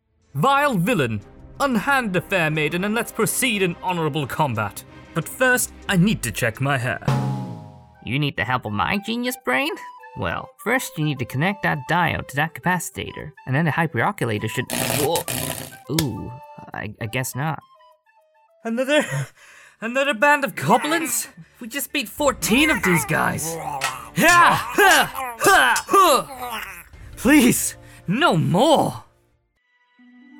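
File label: character voices reel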